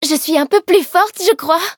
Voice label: levelup fr